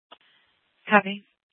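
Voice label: police dispatch radio, scanner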